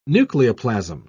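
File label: "nucleoplasm", British English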